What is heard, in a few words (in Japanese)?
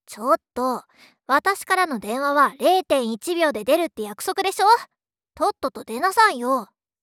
着信ボイス; エレナ